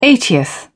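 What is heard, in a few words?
"eightieth", British English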